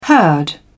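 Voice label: "herd", British English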